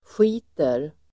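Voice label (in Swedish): "skiter", Swedish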